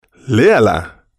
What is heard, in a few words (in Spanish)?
léala, Spanish